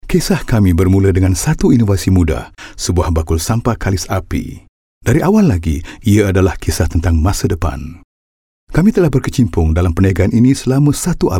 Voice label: Malay VO, Malay Male